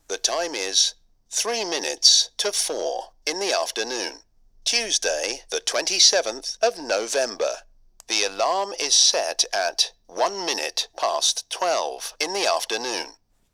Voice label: GB man